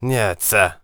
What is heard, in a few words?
warrior conversation emotion neutral